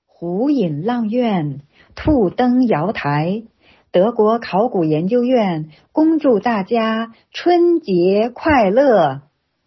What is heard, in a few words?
Greetings in Chinese